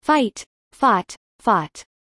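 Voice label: "fight fought fought", English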